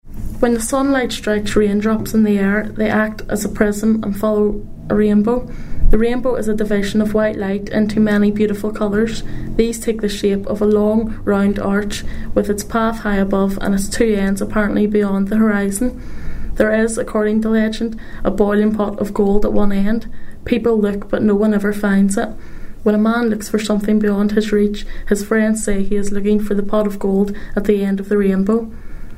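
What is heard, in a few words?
passage, Irish English, The North of Ireland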